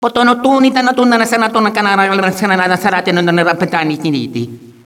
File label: talking, echo inside caves, underground; DS; ML BOWSERS INSIDE; Luigi